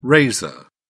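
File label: "raiser", British English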